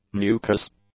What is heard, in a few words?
"mucous", English; adjectives